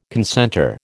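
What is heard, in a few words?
"concentre", American English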